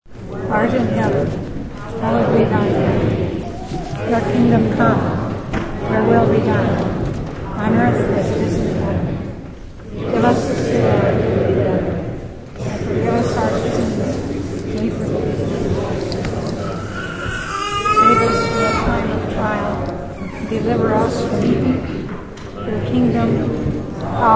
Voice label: Congregation recites the Lord's Prayer; Churches (Sound Effects:Backgrounds)